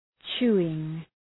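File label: "chewing", English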